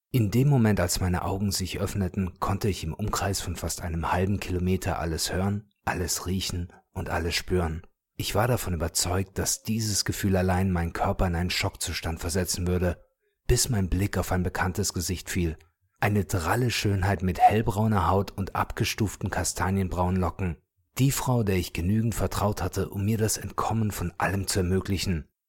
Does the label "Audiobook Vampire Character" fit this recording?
Yes